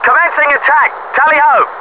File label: TALLY HO, British; combatfs